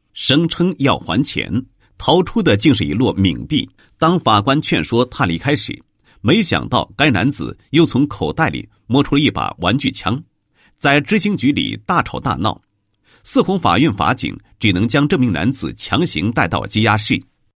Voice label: 男27, 违法案例警示; 法院

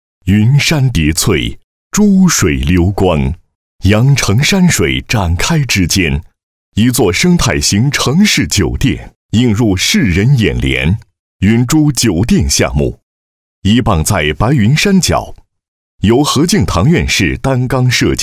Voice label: 男 宣传片 酒店

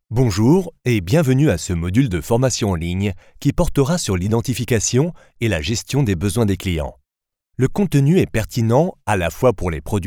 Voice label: 外籍 法语 男 在线教育 learning

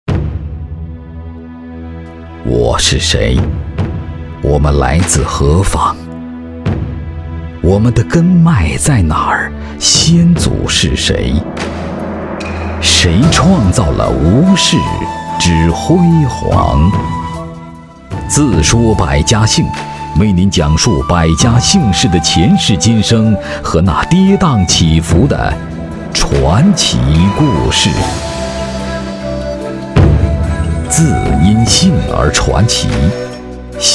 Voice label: 男 神秘感; 飞龙在天; 寻找神山

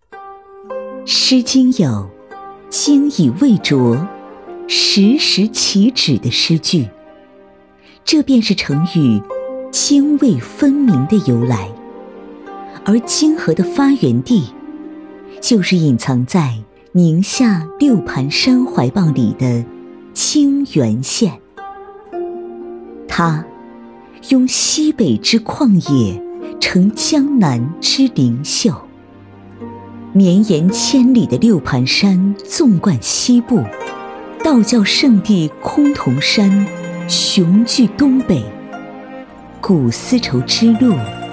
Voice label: 历史 韵味; 诗经

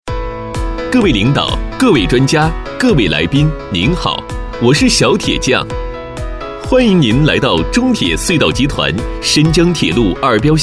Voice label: 男 解说; 完全培训

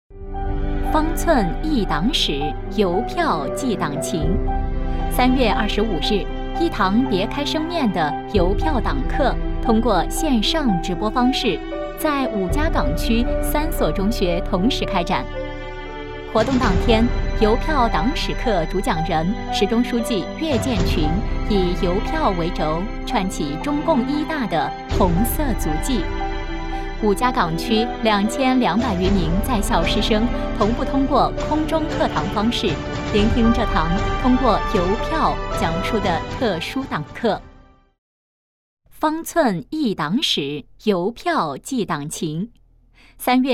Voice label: 女, 党建

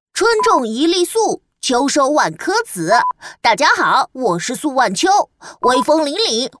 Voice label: 角色男; 17文心; 吉星家族配音台词

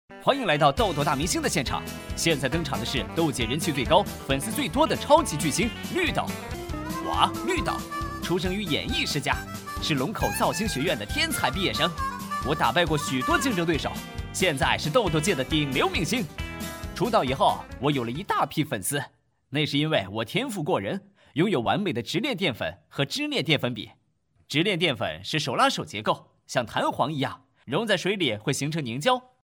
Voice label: 男 角色 搞怪; 豆豆大明星

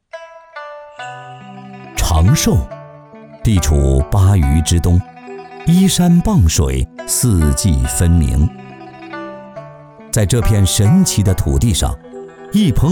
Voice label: 男 纪录片 浑厚; 长寿酒

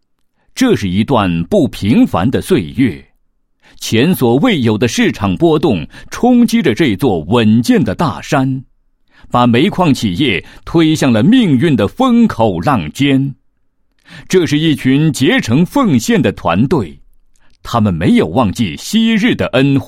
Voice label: 男 工作纪实; 王村煤矿斜井工会2015年工作剪影

